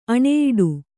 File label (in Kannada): "ಆಣೆಯಿಡು", Kannada